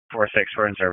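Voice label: EMS DISP, radio; wake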